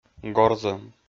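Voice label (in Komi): "горзӧм", Komi, male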